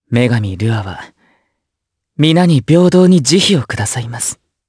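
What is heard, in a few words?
vox dia Japanese; Lucias; kingsraid; jp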